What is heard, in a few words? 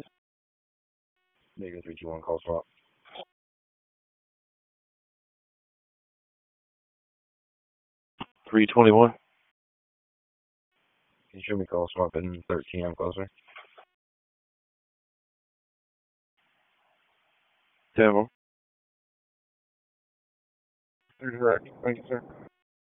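Police Northeast District Dispatch, p25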